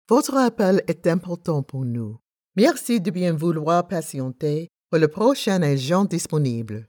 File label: French IVR